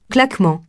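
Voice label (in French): "claquement" French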